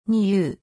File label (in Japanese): "にゅ", 日本語; 基礎日語五十音聽力